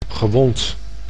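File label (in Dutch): "gewond", Dutch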